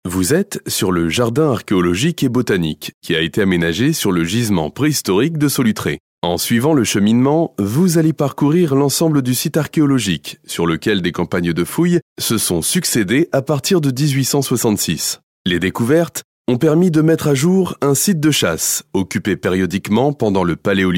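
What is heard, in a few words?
French, male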